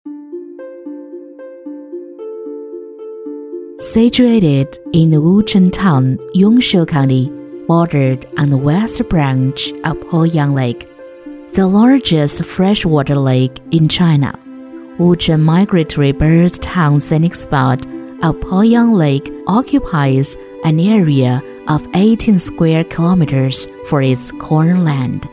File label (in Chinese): 英女 英语景区 舒缓沉稳